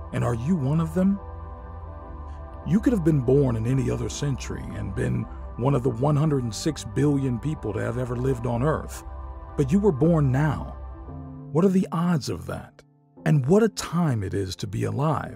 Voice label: Voice Over, English; MAN